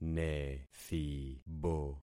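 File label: "nethibo", concatenated syllables; Affixing experiment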